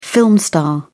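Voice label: "film star", British English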